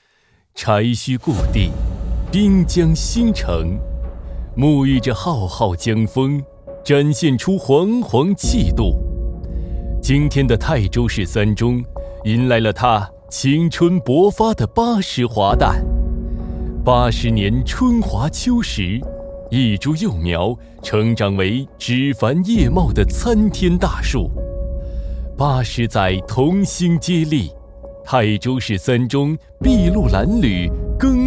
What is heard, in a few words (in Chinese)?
男 专题 校庆专题; 芳华八秩正青春